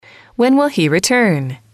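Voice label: "when will he return", English, female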